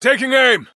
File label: Neutral